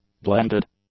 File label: "blended", English; adjectives